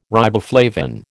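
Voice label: "riboflavin", American English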